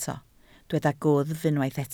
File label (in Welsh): "gwddf", Welsh